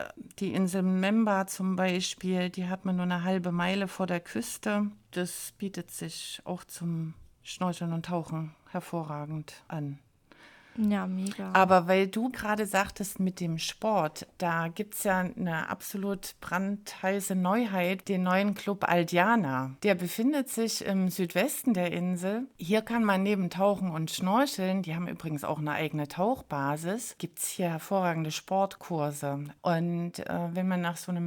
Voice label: Host Read Ad